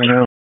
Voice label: Police North District Tac 1, p25; Raleigh; Wake